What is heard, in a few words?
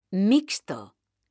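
"mixto", Spanish; mekanta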